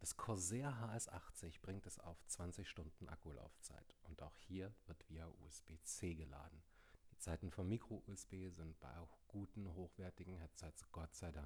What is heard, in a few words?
Corsair HS80 Mic Test